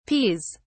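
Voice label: "peas", British English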